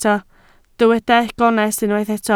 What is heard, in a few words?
"gonest", Welsh